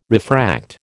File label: "refract", American